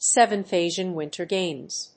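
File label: "Seventh Asian Winter Games", English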